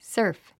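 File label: "surf", English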